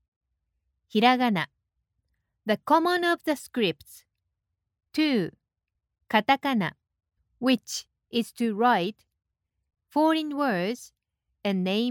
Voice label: female japanese voice over english

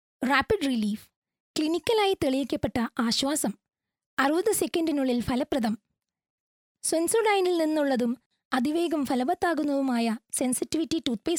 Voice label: female malayalam voice over sales

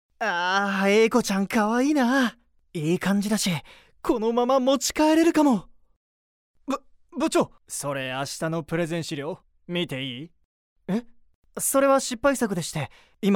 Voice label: male japanese voice over character

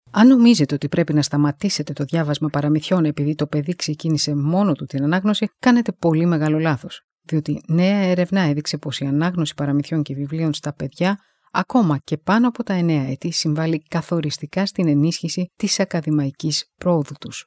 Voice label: female greek voice over upbeat

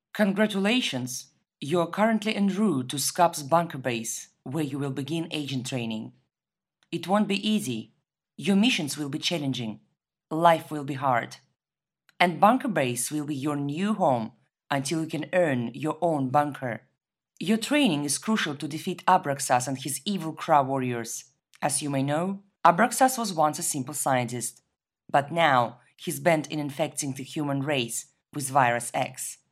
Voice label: female russian voice over english

